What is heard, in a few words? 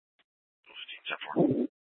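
Scottsdale PD North Dispatch; Nth Dispatch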